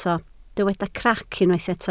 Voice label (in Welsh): "crac", Welsh